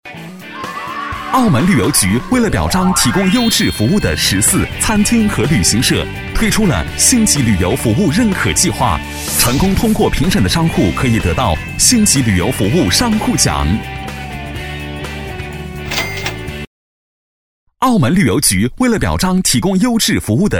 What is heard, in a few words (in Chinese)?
男 广告配音 年轻活力; 澳门旅游局; 男6-高端大气; 轻松活泼